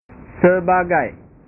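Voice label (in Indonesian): "sebagai", Indonesian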